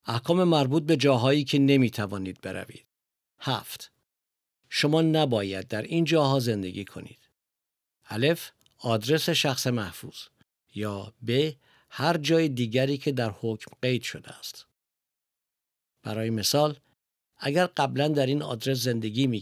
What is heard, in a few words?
farsi; orders about where you cannot go